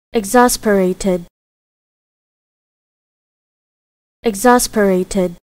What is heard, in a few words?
Exasperated Pronunciation